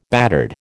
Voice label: "battered", American